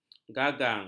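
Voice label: "gagang", Malay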